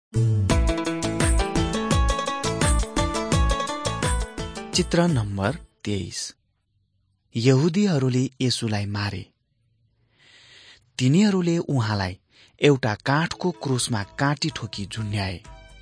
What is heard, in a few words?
victory through god nepali